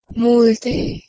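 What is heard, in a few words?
"mlh2teh2", Proto-Indo-European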